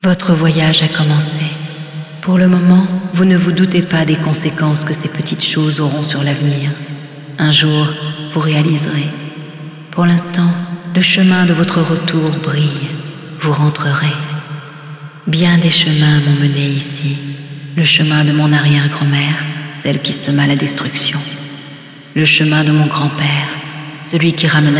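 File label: Speech french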